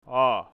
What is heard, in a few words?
a cursive, latin ipa